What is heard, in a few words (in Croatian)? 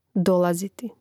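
"dolaziti", Hrvatski; mreznik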